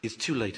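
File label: iTs too late, Standard Southern British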